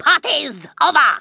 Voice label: "first blood", Kamikaze speech; Worms Armageddon